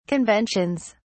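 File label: "conventions", British English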